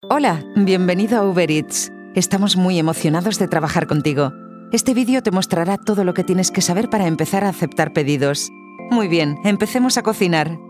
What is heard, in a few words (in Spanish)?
voz femenina media; Castellana femenina adulta media; Genero Femenino; Idioma Castellano; Tono Medio; Edad Adulta